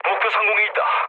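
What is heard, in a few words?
Korean near waypoint mood low; speech; War Thunder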